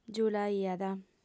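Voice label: "giulaiat", Sardinian, female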